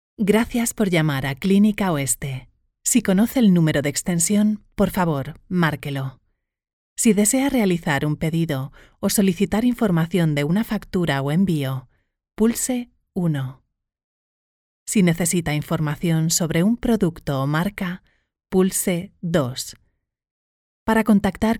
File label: IVR Spanish